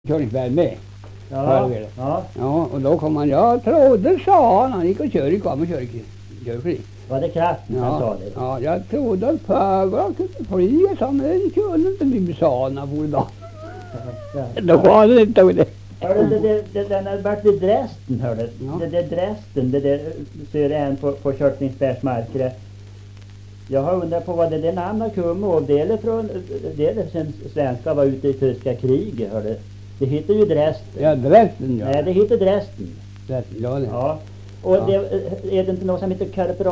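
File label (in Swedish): Viby Närke, dialekter; Folkminnen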